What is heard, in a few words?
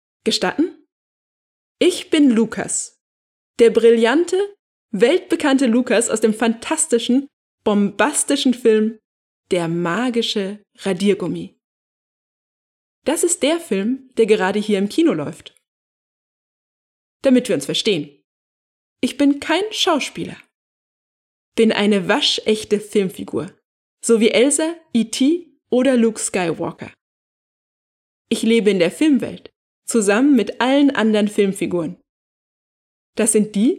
Studio microphone processed